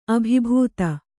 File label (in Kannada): "ಅಭಿಭೂತ", Kannada